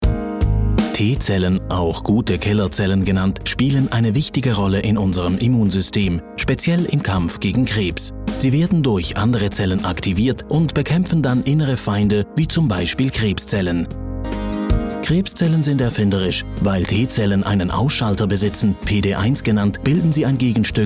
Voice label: Medical German